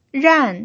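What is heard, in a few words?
"ran", pinyin, tone 4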